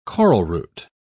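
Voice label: "coralroot", British English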